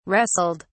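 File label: "wrestled", English, British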